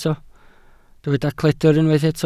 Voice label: "cledr", Welsh